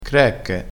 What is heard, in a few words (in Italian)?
"crecche", crespinese